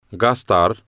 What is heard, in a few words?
"gastar", spanish